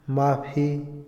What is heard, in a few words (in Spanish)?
"gritar", Otomí, hombre; Habla; INALI